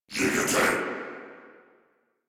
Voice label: Surprised, Battle Barks

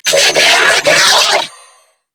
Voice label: Player Spotted Grineer Female; en; New War; Narmer Grineer

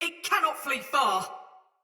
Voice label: Sight Lost Shout Soldier Mace Agent Female; Battle; Sinecure Melee

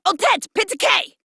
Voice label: Player Spotted, Female; en; Modular Corpus; Battle Barks

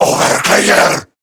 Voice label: Surprised, Male

